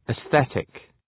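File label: "atheistic", English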